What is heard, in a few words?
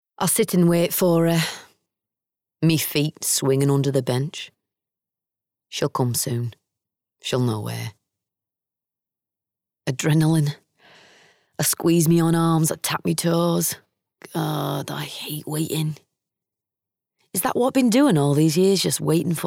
Bookread Northern